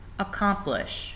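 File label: "accomplish", USA, female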